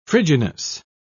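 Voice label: "frigidness", British English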